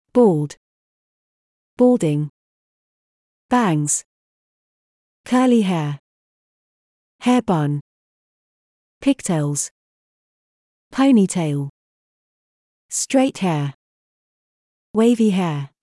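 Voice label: match pic haircut, British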